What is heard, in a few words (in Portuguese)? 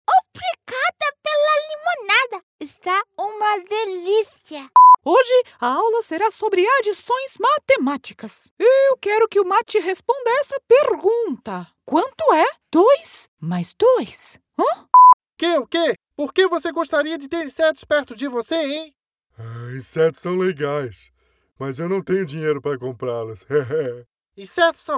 characters cartoons dubbing male female kids funny unique female brazilian